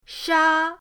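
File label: "sha1", Mandarin Chinese, first tone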